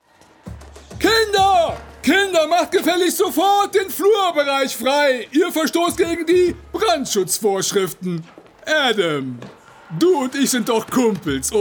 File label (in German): Animation